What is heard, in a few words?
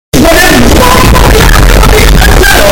very loud bomboclat